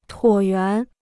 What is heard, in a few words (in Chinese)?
"椭圆", Chinese